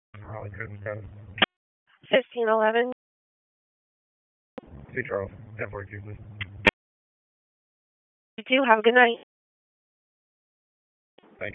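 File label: Police Northeast District Dispatch, Raleigh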